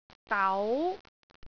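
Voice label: "dau2", Cantonese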